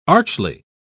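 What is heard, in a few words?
"archly", English, British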